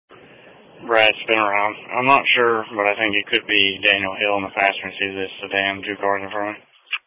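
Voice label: Police Patrol Dispatch; New Bern Police Patrol 1 (Dispatch) (TG 200)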